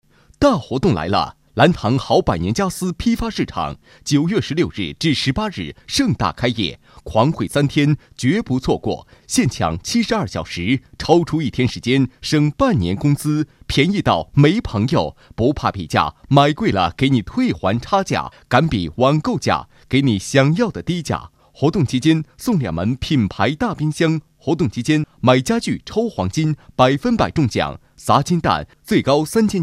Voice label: 男, 活动

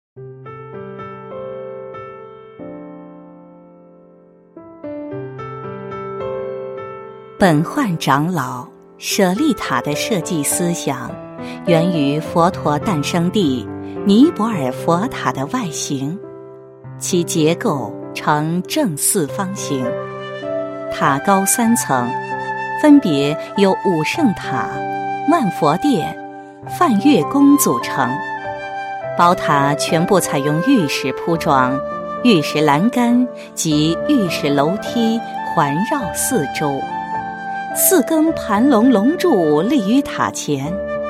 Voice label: 女 专题 佛教类的解说, 国语女声配音; 全能配音

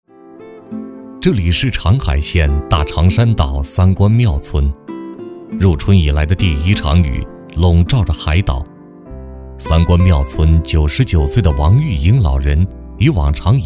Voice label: 国语男声配音 讲述 舒缓; 人物专题; 央视老师

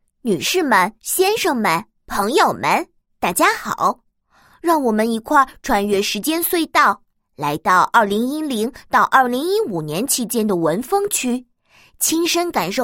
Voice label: 女 童声配音; 文峰欢迎您

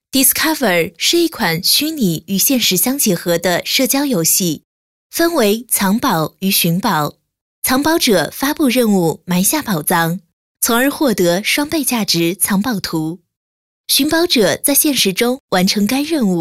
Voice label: 女 专题 游戏介绍, 国语女声配音; Discovery; 多风格

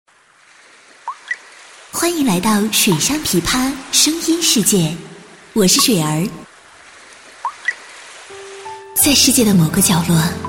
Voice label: 女, 片花

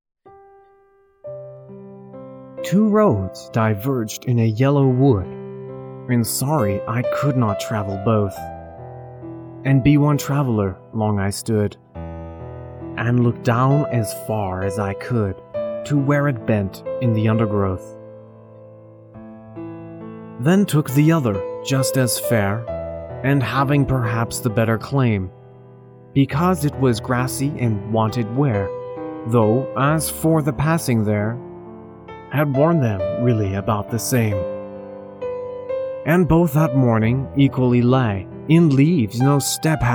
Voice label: 外籍英男, 英文诗朗诵

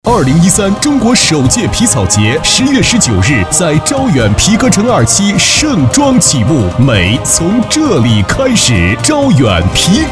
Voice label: 男 广告 大气皮草节, 国语男声配音

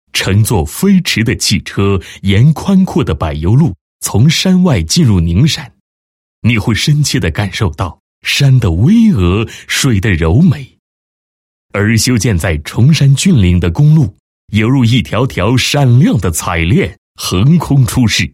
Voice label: 男 工作纪实; 加快交通建设促脱贫

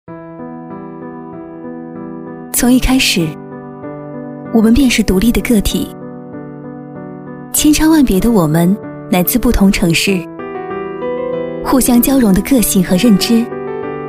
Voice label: 女 旁白, 国语女声配音; 新港

